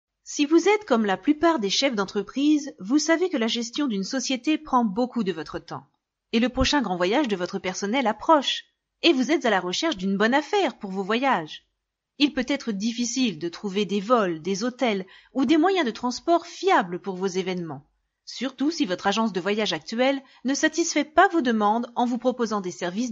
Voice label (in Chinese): 法语女, 外语, 小语种